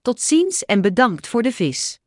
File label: so long, dutch